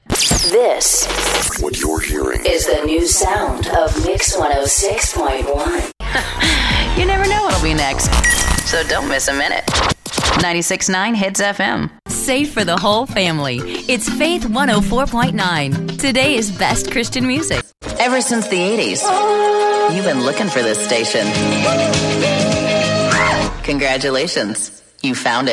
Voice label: Imaging Multiple stations, voiceover

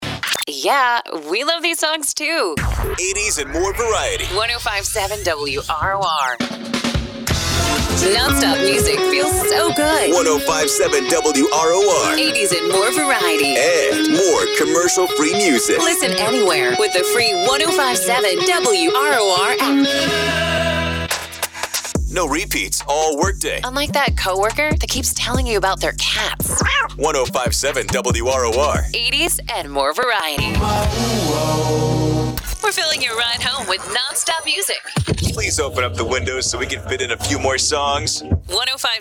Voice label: CLASSIC HITS, voiceover

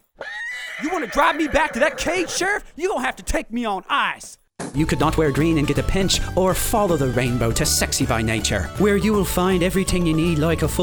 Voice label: Voice Over

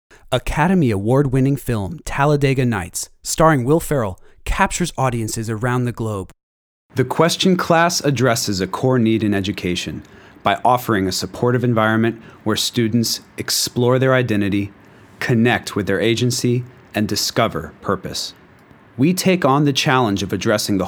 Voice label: Announcer

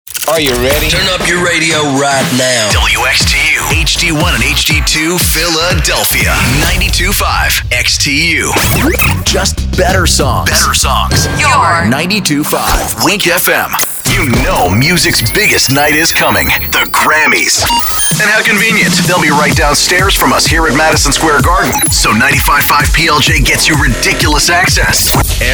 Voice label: Imaging Voice

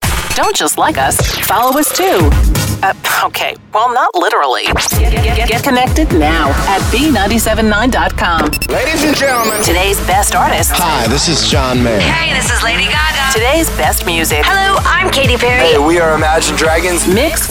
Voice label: Hot AC Radio Imaging

